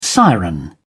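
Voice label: "siren", British English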